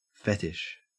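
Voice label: "fetish", Australian English; pronunciations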